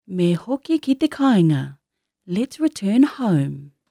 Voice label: "kāinga", Māori